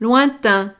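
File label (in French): "lointain", French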